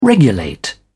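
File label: "regulate", British English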